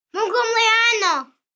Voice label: Italian Birthday, 1 boy, 8 yrs., Italian, (Happy Birthday), human voice; Holiday; Occasions, Foreign